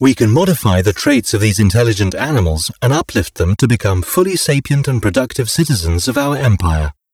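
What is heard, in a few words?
tutorial message uplift; Stellaris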